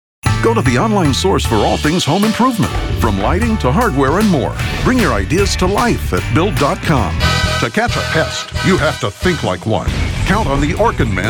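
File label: Commercials, Male Voiceover, Rich Bass Voice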